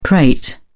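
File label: "prate", English, British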